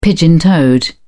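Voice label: "pigeon-toed", British English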